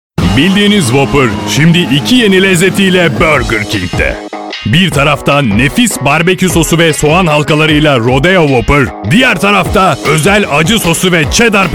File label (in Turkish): reklam; burger king